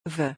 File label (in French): "vœux", French